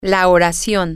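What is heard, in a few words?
the sentence, spanish, female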